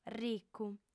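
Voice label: "ricu", female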